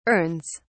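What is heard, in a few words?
"urns", British English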